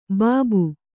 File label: "ಬಾಬು", Kannada